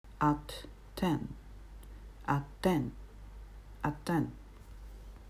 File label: "at ten", English